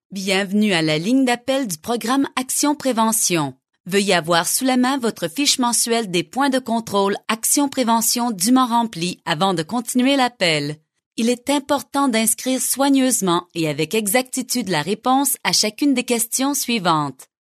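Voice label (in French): French Canadian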